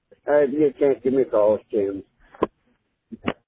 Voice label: Voicemail